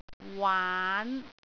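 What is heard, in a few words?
"waan5", Cantonese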